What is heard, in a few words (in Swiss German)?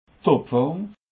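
"topform", Alsatian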